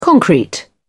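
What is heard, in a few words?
"concrete", British English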